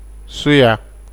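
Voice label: "suya", Nigerian Pidgin, male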